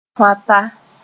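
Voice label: "tõata", Mooré; pigheaded person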